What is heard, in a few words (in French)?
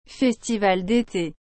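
"festival d'été", French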